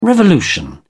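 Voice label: "revolution", British English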